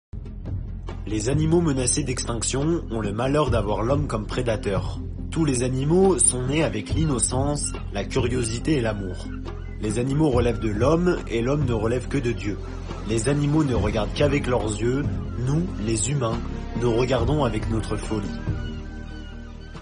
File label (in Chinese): 法语男声